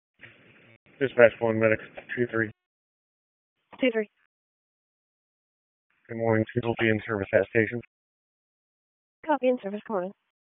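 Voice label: EMS Dispatch; wake; p25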